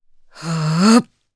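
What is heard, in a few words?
Vox Casting, Japanese; Morrah; kings raid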